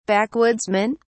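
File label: "backwoodsmen", British English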